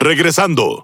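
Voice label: Spanish Speech; Pilots Land; World Of Warships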